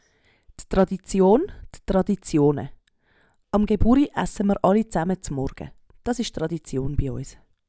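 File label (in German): d Tradition, Swiss German; Wortschatz Kapitel 8; Kapitel 8 Hauptwortschatz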